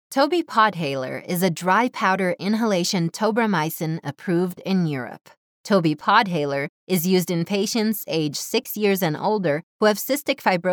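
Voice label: American English, female, e-learning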